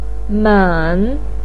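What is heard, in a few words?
"men", Mandarin Chinese third tone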